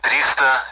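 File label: Speech Sound Russian Common AWACS Numbers; DCS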